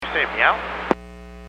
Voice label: Aviation 121.5 Emergency